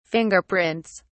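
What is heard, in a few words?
"fingerprints", British English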